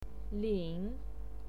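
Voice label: "lin", pinyin, third tone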